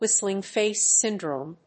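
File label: "Whistling Face Syndrome", English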